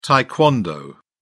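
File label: "tae kwon do", British English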